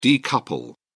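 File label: "decouple", British English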